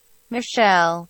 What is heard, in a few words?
Michele Pronunciation